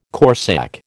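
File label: "corsak", American English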